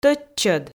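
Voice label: "тӧдчӧд", Komi, female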